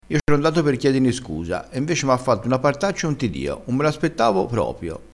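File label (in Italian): "partaccia", dialettale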